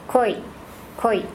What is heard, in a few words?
koi female